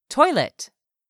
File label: "toilet", English; 3000 words